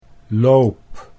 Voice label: "loop", Dutch

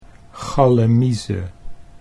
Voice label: "gallemieze", Dutch

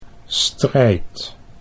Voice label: "strijd", Dutch

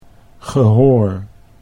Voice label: "gehoor", Dutch

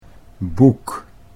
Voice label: "boek", Dutch

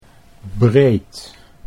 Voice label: "breed", Dutch

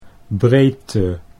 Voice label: "breedte", Dutch

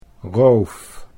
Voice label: "roof", Dutch

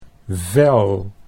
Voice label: "vel", Dutch

